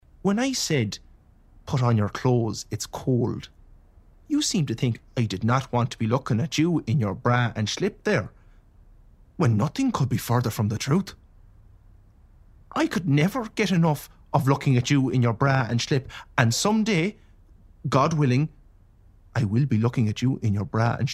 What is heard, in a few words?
narrative